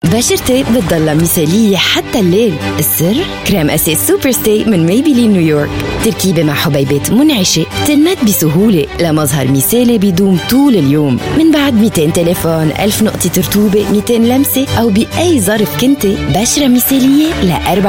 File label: Arabic Female Foundation Powder; Superstay